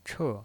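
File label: "che", Mandarin Chinese pinyin voice, fourth tone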